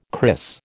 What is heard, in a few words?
"kris", American